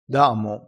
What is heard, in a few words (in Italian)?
"damo", crespinese